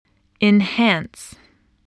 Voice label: "enhance", spelling

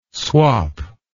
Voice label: "swop", English word

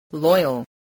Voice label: "loyal", spelling

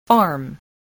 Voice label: "farm", voice

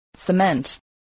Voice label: "cement", spelling

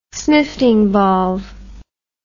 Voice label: "snifting valve", English

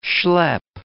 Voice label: "schlepp", English

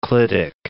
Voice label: "clitic", English

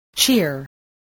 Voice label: "cheer", English

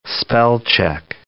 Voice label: "spell-check", english

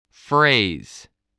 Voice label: "phrase", spelling

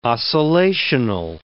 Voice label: "oscillational", English word

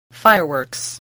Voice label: "fireworks", English

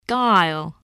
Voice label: "guile", spelling

